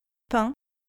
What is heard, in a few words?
"pain", French